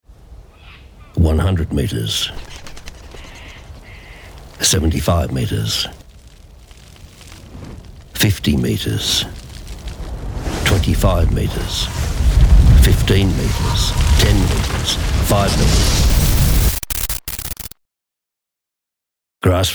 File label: RADIO